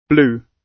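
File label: "blue", British English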